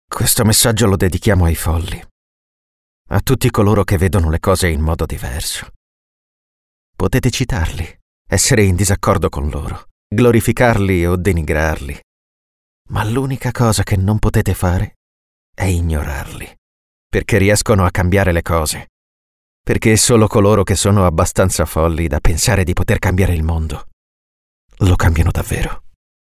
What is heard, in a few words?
emotional